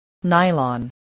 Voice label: "nylon", English